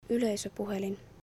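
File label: Finnish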